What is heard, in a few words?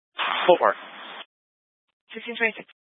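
Fire Dispatch, scanner audio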